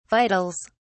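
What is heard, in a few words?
"vitals", English, British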